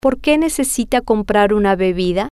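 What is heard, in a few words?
Porque Necesita Comprar Una Bebida, female, spanish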